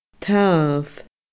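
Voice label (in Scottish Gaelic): "tamh", Scottish Gaelic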